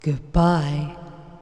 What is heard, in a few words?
Announce Countdown Goodbye; BlastChamber PSX; Demo Interactive CD